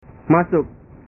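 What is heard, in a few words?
"masuk", Indonesian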